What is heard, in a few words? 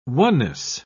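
"oneness", British English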